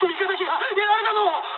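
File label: aircraft destroyed mood med, speech japanese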